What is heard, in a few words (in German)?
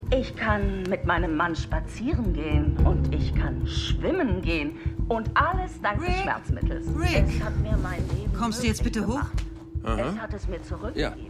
Frau 2 in Werbespot; Stimmproben; Dopesick